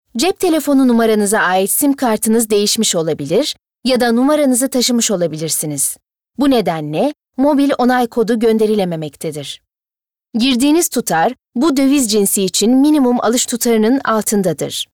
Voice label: IVR